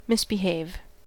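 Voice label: "misbehave", US English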